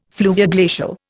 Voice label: "fluvioglacial", Indian

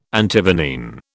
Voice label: "Antivenene", British

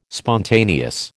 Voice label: "spontaneous", American

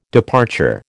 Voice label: "departure", American

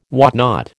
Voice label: "whatnot", American

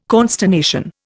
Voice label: "consternation", Indian

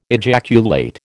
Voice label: "ejaculate", American

